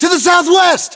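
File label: direction relative southwest, battlechatter us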